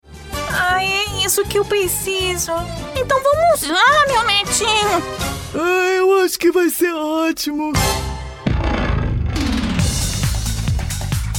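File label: Brazilian Portuguese, female; character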